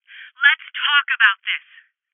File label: Voices Postpone Female